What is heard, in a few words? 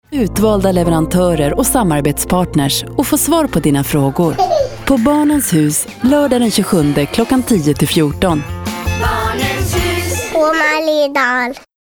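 Swedish commercial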